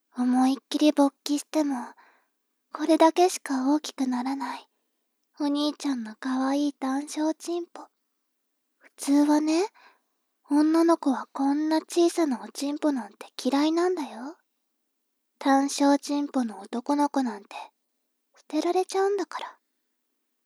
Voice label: 妹 台詞

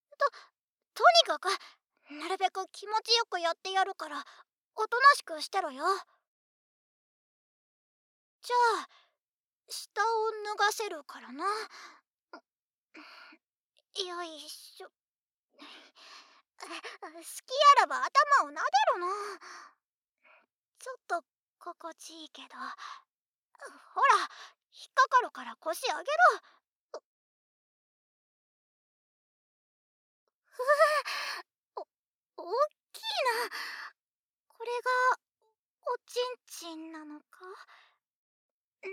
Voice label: 声のみ ささやき手コキ編; ナマイキでかわいくてご主人様なヴァンパイア; めがみそふと; 回想モード; 効果音なしver

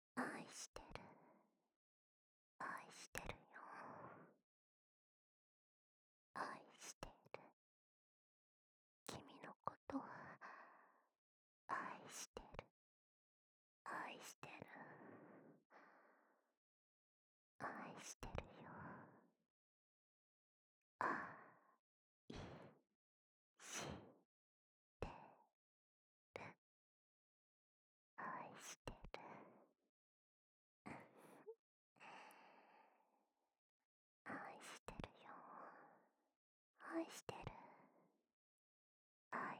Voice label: 囁き 右耳; 愛してる

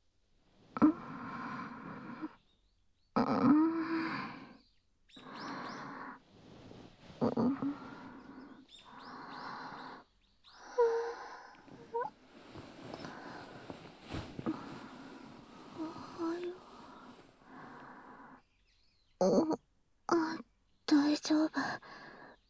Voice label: 朝勃ち、耳舐め、朝オナニー, ASMR; 反転

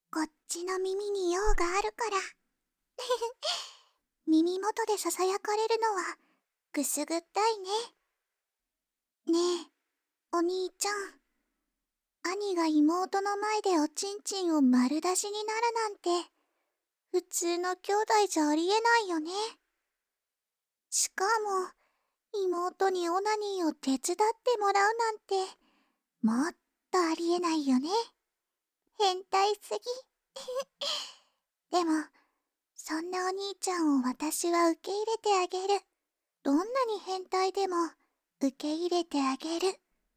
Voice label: 前置き 右耳

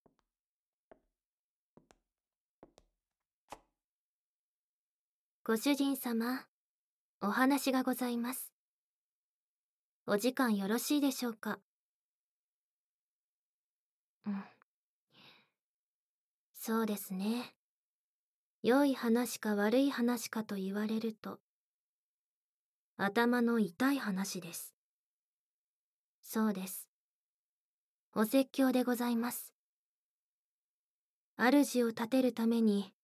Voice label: 好き囁き×耳キス, ローション手袋手コキ

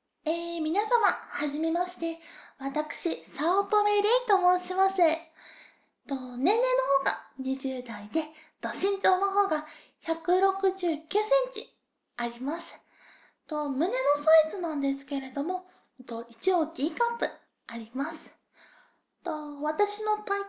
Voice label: 自己紹介 トーク ステレオ

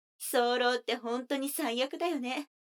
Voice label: 女の子台詞

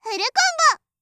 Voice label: "フルコンボ！", 音ゲー風

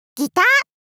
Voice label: ギター 少女×中音域

